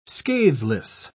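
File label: "scatheless", British English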